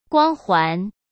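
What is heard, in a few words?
"光环", Mandarin; chinese flashcards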